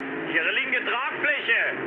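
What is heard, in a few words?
German left wing mood low, speech; War Thunder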